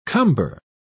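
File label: "cumber", British English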